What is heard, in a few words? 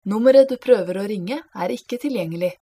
Norweigian; foreign languages